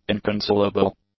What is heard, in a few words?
"inconsolable", English; adjectives